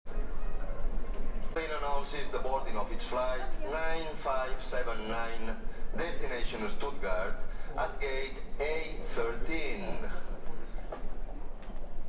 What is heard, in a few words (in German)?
Flughafen Ansagen Mallorca